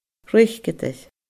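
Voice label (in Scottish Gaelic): "reiceadair", Scottish Gaelic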